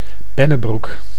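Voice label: "Bennebroek", Dutch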